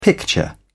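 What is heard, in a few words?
"picture", British English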